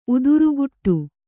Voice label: "ಉತ್ತರಕೊಡು", Kannada

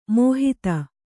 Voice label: "ಮಾಹತ್ತು", kannada

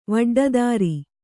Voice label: "ವಡ್ಡದಾರಿ", kannada

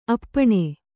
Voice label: "ಅಪ್ಪಣೆ", Kannada; the act of permitting; esp., formal consent; permission

